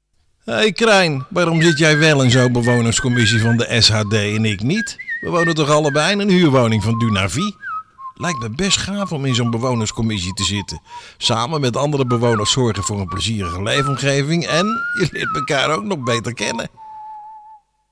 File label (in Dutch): Radiospot werving; werving bc leden